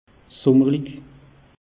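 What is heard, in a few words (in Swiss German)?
"summerlich", Alsatian; meteo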